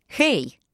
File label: bonjour, voix; ru